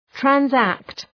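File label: "transact", English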